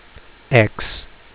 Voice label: name x, phonics; フォニックス